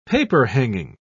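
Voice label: "paperhanging", British English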